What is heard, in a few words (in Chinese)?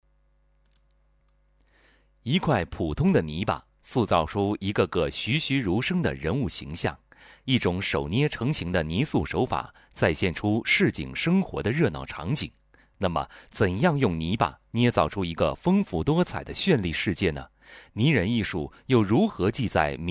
预告